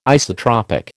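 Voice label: "isotropic", American English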